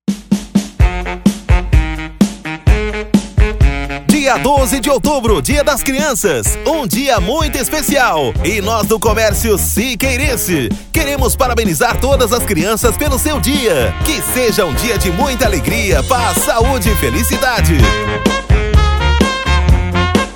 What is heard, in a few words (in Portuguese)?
locutor